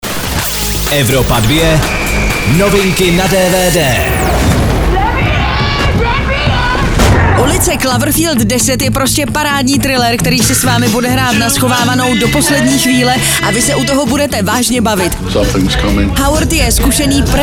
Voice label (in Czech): DVD NEWS; Ulice Cloverfield 10